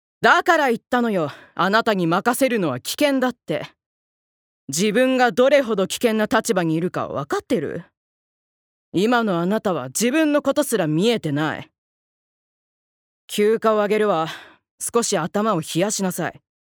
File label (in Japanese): 外画